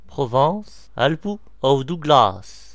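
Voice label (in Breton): "provence alpes cote d azur", Breton; france region; gcompris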